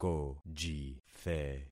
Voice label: "kojithe", concat syllables; Affixing experiment

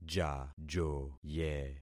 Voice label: "jajoye", concat syllables; Affixing experiment

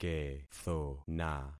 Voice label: "kethona", concat syllables; Affixing experiment

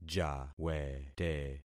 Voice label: "jawete", concat syllables; Affixing experiment

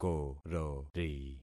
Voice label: "korori", concat syllables; Affixing experiment

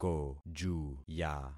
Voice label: "kojuya", concat syllables; Affixing experiment

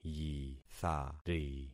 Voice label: "yithari", concat syllables; Affixing experiment

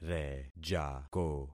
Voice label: "rejako", concat syllables; Affixing experiment

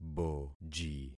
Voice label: "boji", concat syllables; Affixing experiment

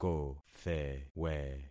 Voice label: "kothewe", concat syllables; Affixing experiment

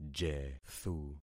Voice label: "jethu", concat syllables; Affixing experiment

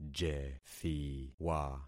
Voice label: "jethiwa", concat syllables; Affixing experiment

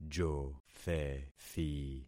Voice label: "jothethi", concat syllables; Affixing experiment

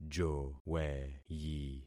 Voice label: "joweyi", concat syllables; Affixing experiment

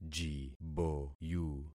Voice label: "jiboyu", concat syllables; Affixing experiment

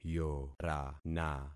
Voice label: "yorana", concat syllables; Affixing experiment

